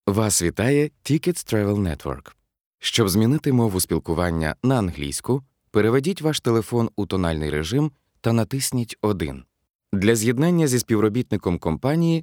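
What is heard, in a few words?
interactive voice response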